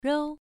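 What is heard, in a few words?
"rou", Mandarin Chinese syllable, first tone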